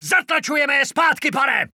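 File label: Czech positive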